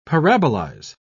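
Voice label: "parabolize", British English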